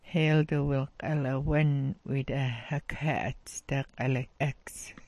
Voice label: "'wiita", smalgyax